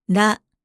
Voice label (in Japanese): "ら", Japanese